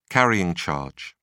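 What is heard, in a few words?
"carrying charge", English, British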